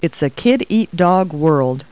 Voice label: people, kid